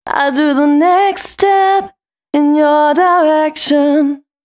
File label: female, C 135, Dance Ultra Voice, short hooks; Mutekki Media; Dance Ultra Voice VOL-1